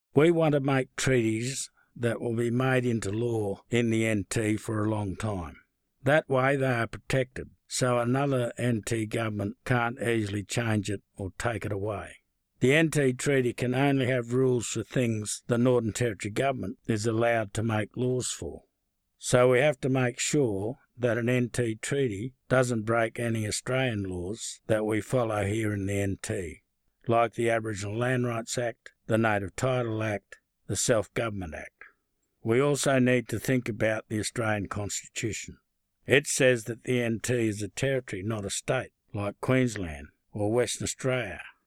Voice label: English